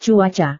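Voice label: "cuaca", Indonesian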